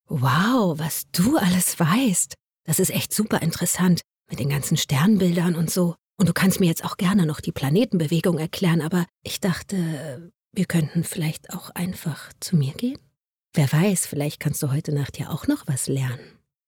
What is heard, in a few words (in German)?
sexy